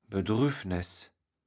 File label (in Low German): "Bedrüvnes", kaevels platt